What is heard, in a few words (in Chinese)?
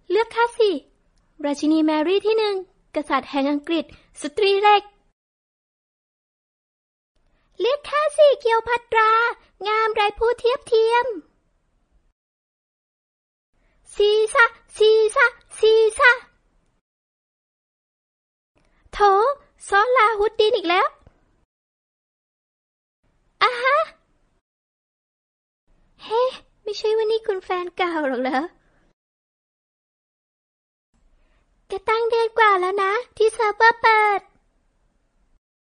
泰语女声 角色